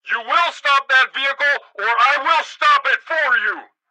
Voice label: police megaphone; Blind Drive

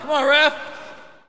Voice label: Hockey Male "Come On"; Hockey Vocal Sounds

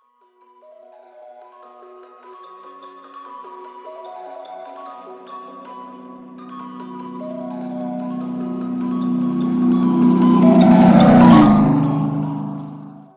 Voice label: icecream tutorial; Blind Drive

